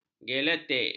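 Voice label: "geletek", Malay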